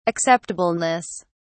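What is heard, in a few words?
"acceptableness", English, British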